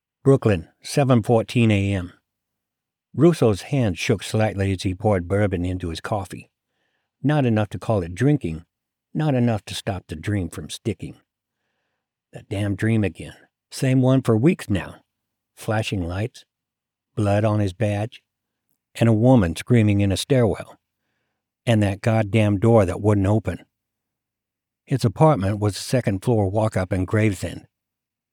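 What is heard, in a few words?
Fiction Crime Drama New York Accent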